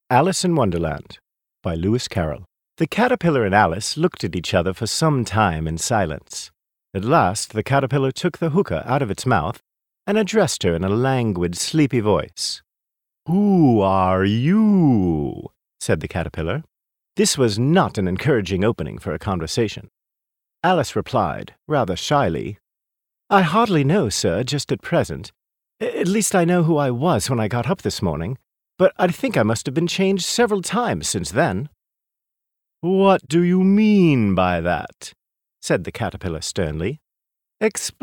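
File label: narration British; uk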